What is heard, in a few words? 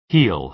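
"heal", American English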